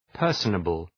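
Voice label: "personable", English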